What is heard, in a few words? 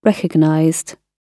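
"recognized", British English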